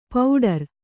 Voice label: "ಪೌಡರ್", Kannada

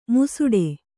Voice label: "ಮುಸುಡೆ", Kannada

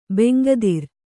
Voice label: "ಬೆಂಗದಿರು", Kannada

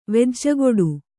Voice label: "ವೆಜ್ಜಗೊಡು", kannada

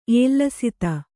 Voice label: "ಏಲ್ಲಸಿತ", Kannada

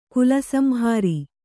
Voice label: "ಕಾಲಸಂಹರ", Kannada

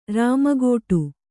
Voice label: "ರಾಮಗೋಟು", Kannada

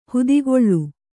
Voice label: "ಹಿತಗೊಳ್", Kannada

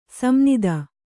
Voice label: "ಸಂನಿದ", Kannada

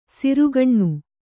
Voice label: "ಸೆರೆಗಣ್ಣು", kannada

